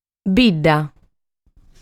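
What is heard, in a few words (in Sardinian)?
"Bidda", Sardinian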